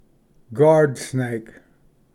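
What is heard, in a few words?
"guard snake", English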